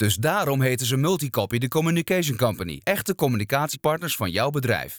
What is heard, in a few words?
Radio Commercial tag on NO MUSIC